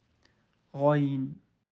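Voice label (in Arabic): "غ", Arabic; quiz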